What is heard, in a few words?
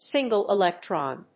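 "Single electron", English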